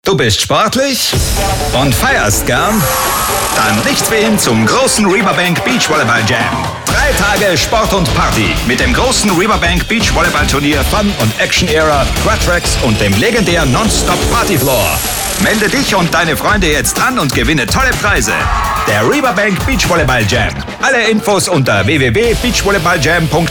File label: Spot HOT